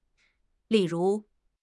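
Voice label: "li4 ru2", Mandarin Chinese; HSK4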